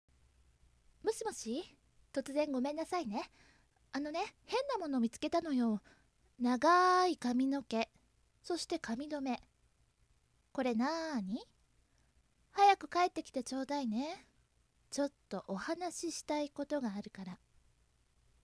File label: cool, voice